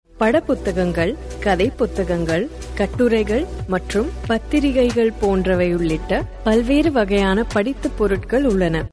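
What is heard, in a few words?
Tamil, female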